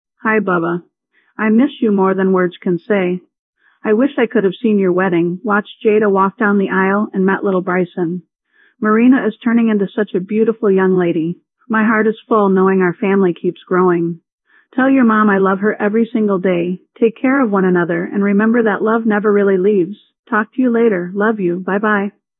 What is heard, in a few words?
old voice